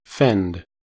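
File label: "fend", US English; pronunciations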